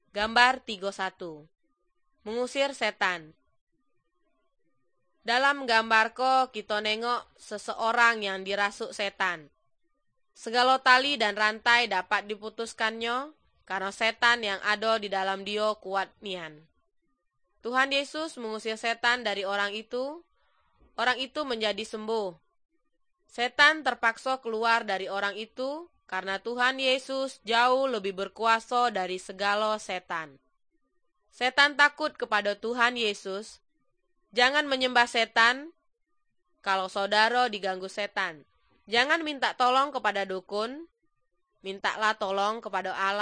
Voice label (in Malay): good news malay jambi